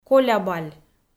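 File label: "колябаль", Komi, female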